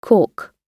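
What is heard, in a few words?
"caulk", English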